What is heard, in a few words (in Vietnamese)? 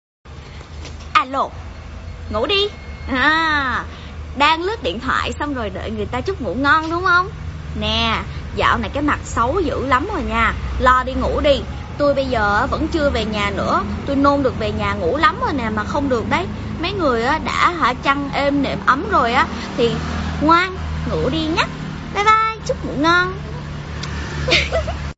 ghi am voice chuc ngu ngon de thuong giong nu